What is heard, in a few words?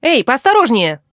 no weapon, woman, talk; Stalker Anomaly; characters voice human use